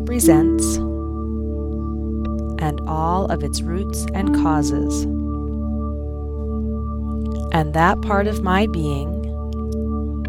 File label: Meditation for Tension Release